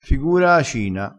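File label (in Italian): "figura acina", vocabolario dialettale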